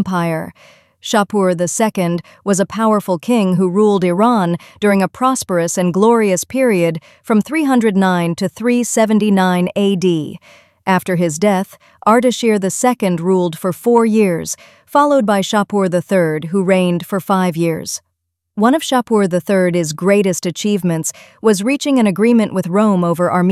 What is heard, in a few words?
small arch english; small taq